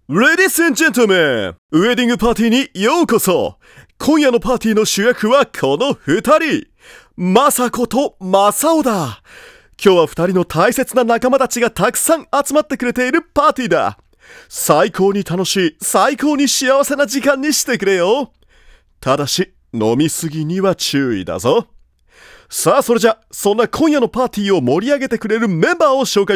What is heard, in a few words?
party casual pleasurable